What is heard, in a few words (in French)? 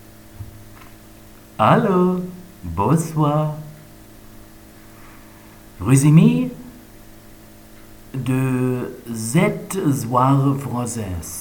zahlen francaise